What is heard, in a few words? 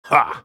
ultimate effort; Graves; Character Voices - English